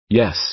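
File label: "yes", American English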